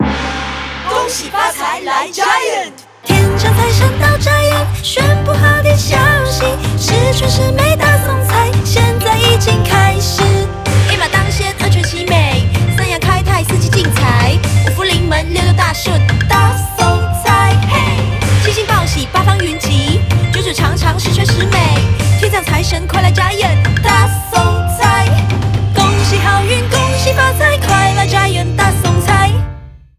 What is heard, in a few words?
MANDARIN; CNY 2018